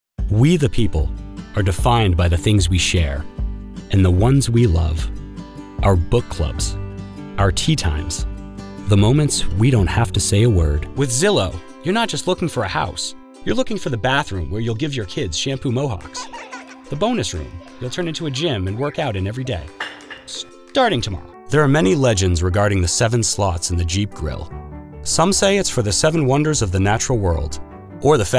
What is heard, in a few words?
Commercial